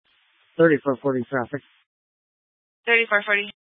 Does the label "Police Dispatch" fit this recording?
Yes